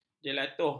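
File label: "jelatuh", Malay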